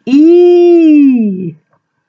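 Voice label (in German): "iiiihh", German interjection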